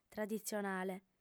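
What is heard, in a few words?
"traditzionale", female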